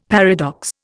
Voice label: "paradox", Indian; Dictionary